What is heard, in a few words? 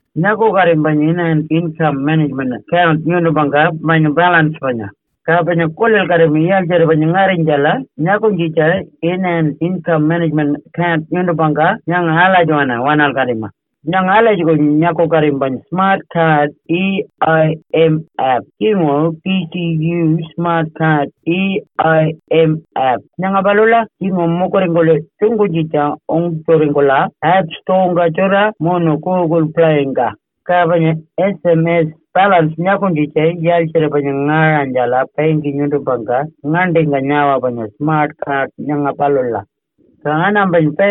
Pitjantjatjara; Checking your EIM account balance